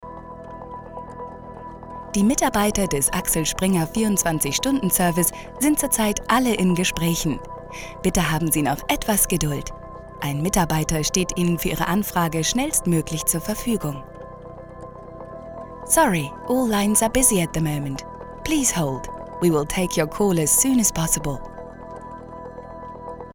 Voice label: Telefon, deutsch frauen

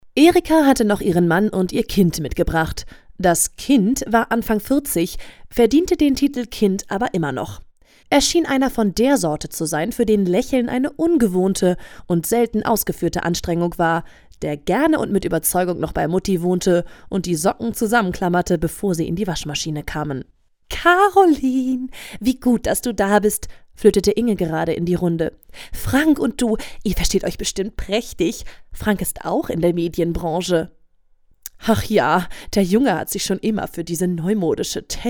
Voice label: hoerbuch, deutsch frauen; sprecher

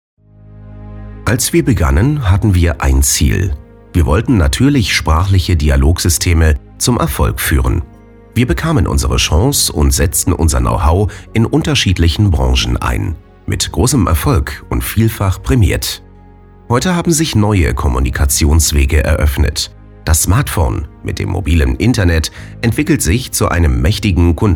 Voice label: imagefilm, deutsch maenner; sprecher

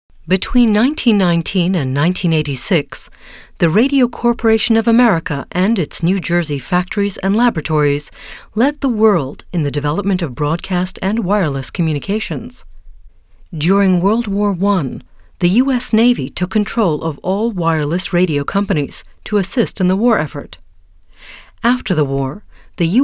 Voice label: US Doku, englisch; sprecher

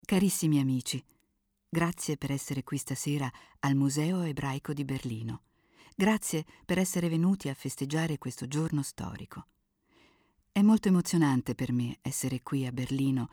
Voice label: it audioguide, italienisch; sprecher

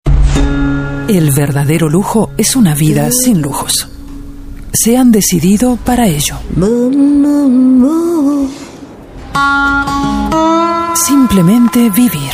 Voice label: werbung, spanisch

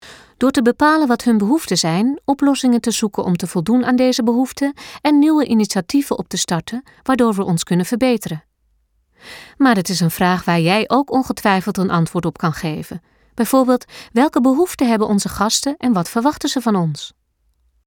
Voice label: niederlaendisch elearning; sprecher

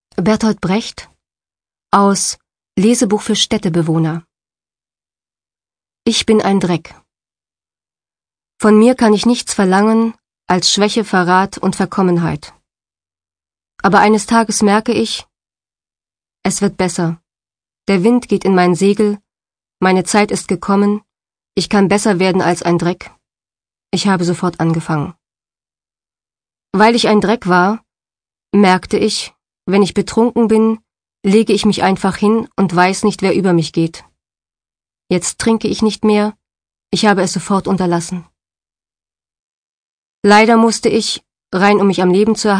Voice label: literatur, deutsch frauen; sprecher

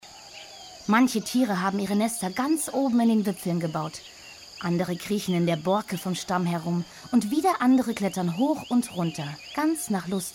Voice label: de doku, deutsch frauen; sprecher

